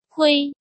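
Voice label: "Huī", Chinese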